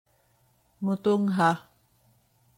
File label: Cebuano